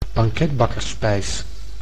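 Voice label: "banketbakkersspijs", Dutch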